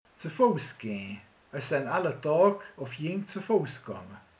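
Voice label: "gyalogol", Hungarian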